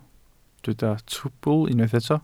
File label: "trwbl", Welsh